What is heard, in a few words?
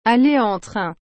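"aller en train", French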